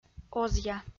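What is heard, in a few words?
"озъя", Komi, female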